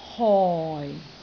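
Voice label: "hoi4", Cantonese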